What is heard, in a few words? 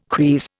"kris", Indian